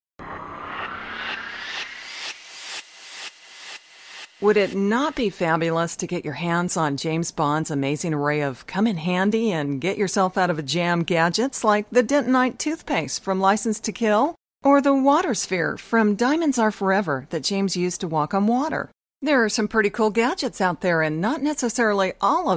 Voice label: 20s Young Voiceover